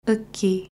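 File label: "Aqui", Portuguese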